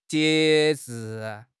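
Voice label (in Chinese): "节制", Mandarin Chinese; HSK6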